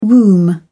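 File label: "womb", British English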